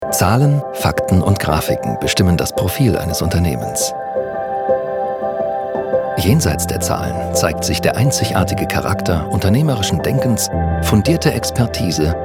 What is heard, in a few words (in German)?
DE Imagefilm; Credit Suisse